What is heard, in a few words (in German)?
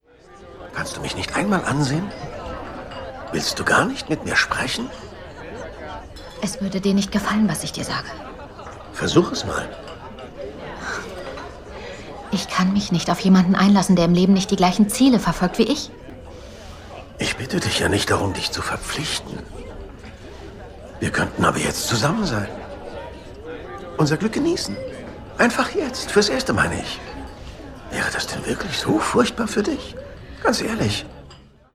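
Synchron, Sprecher, Männer; Archie; Die Cary Grant Story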